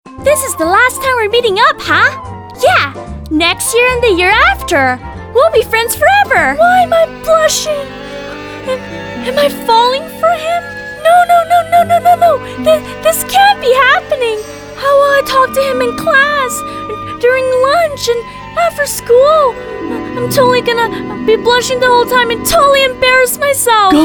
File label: Animation VO